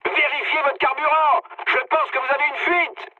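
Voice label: French tank damaged mood high; War Thunder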